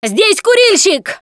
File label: warn smoker, russian; Left 4 Dead 2; Producer; Survivor Voice